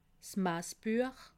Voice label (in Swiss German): "masbuech", alsace